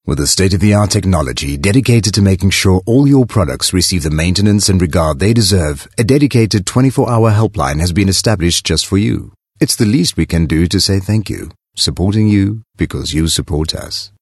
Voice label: English Corporate

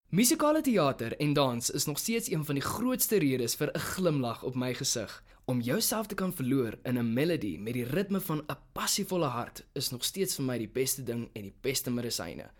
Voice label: Afrikaans Conversational

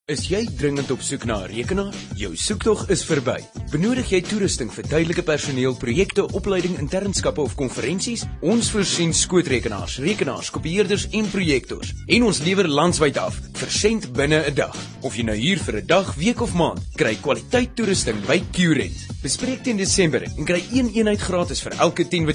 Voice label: Afrikaans Medium Sell

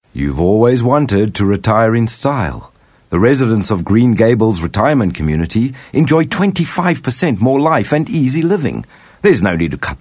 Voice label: English Bright

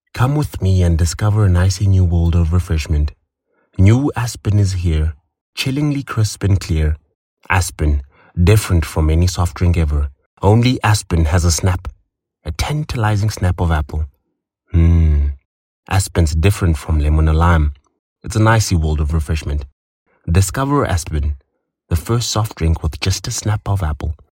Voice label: English Soft Sell

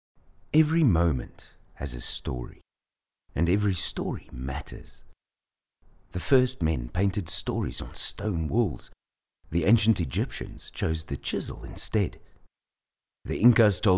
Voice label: English Narrator

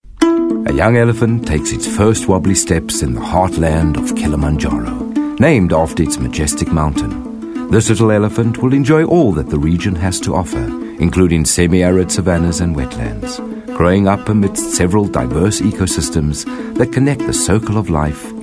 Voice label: English Narrator

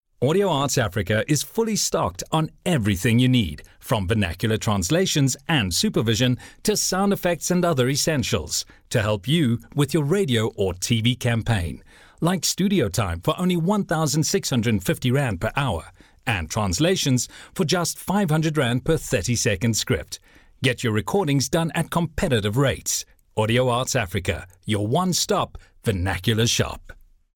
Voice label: English Retail

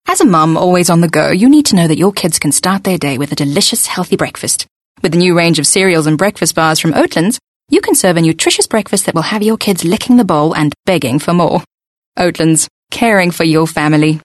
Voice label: English Conversational

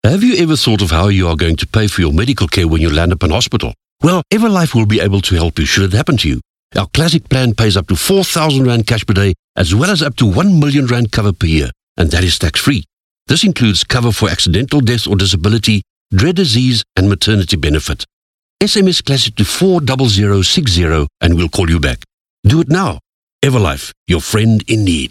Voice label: English Corporate

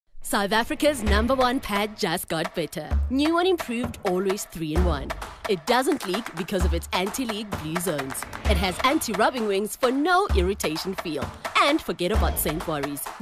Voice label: English Energetic

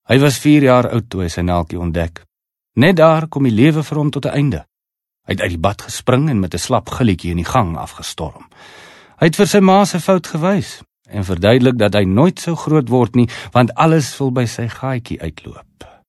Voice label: Afrikaans Conversational

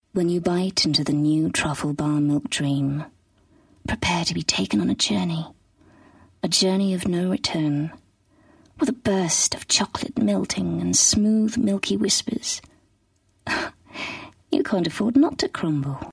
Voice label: English Intimate

